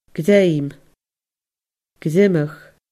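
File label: "greim greimeadh", Scottish Gaelic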